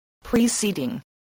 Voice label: "preceding", voice, spelling